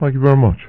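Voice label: thank you very much, male voice; expletives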